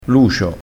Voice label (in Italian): "lucio", crespinese, vocabolario dialettale